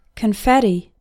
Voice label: "confetti", English

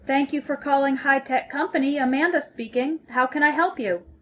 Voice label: phone formal; telephone english making an appointment; This conversation is an example of formal telephone English.

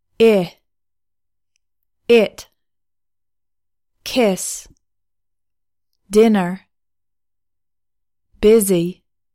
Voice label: english vowels

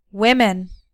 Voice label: "women", English

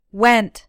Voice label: "went", English

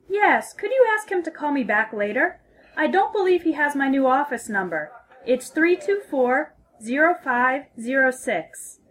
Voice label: phone formal; telephone english making an appointment; This conversation is an example of formal telephone English.

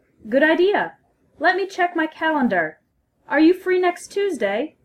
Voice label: phone formal; telephone english making an appointment; This conversation is an example of formal telephone English.

